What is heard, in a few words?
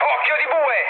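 Italian bomb success mood med, speech; War Thunder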